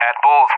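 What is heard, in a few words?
"at bulls", English, USA; DCS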